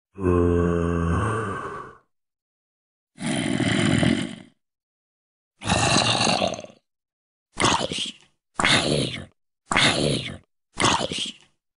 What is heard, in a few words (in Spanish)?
SONIDO DE ZOMBIE DE MINECRAFT